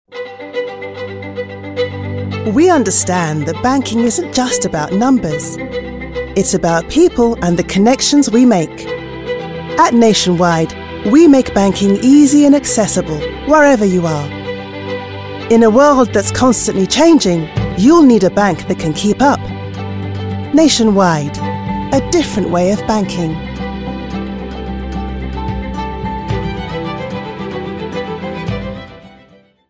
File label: Black UK English; Nationwide